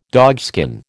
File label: "dogskin", American